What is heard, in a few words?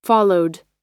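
"followed", American English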